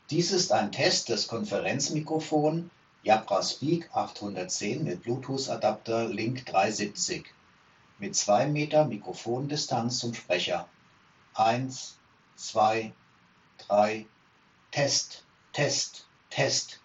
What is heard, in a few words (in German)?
jabra speak 810 link370 2m Distanz, mikrofon test sprachqualitaet; Jabra Speak 810 mit Bluetooth Verbindung via Link 370 BT Adapter getestet; 2 Meter Abstand zum Sprecher